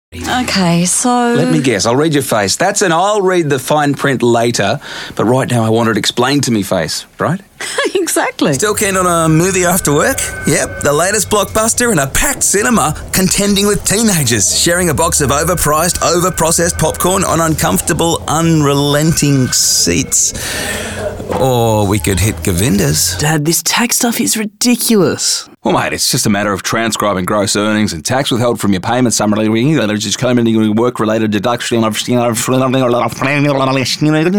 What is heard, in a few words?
Acting